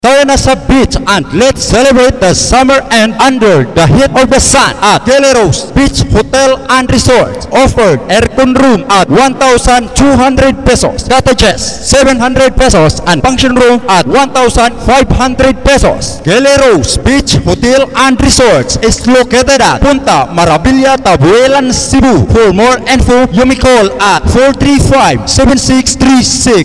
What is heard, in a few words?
COMMERCIAL